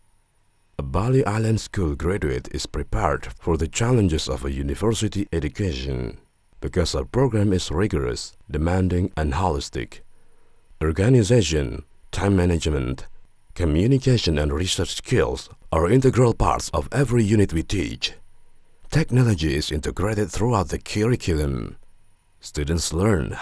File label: English formal